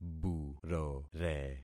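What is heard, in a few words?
"burore", concatenated syllables; Affixing experiment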